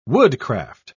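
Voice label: "woodcraft", British English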